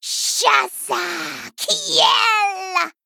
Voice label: Female Gnome Attack